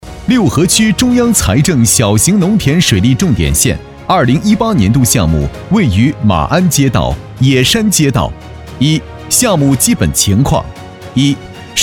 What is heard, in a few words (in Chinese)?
男-党政汇报-年轻激情; 南京市六合区中央财政